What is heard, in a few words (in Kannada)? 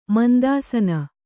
"ಮುಂತಾಸನ", kannada